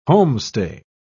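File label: "homestay", British English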